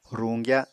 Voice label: "ruñgya", Apatani